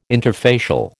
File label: "interfacial", American